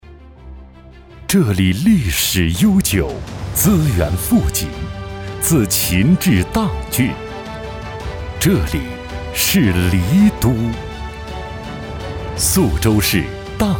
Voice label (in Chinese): 男 城市专题; 大美砀山